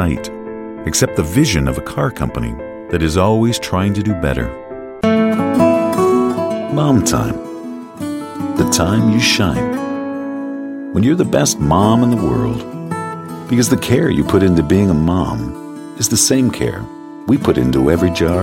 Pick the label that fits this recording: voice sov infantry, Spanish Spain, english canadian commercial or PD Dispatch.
english canadian commercial